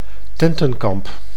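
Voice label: "tentenkamp", Dutch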